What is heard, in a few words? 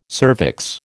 "cervix", American